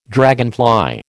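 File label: "dragonfly", American English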